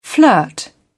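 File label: "flirt", British English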